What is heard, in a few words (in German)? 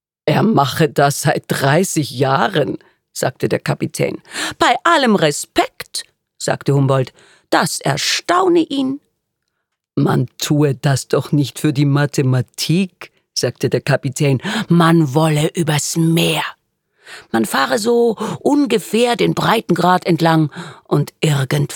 Hoerspiel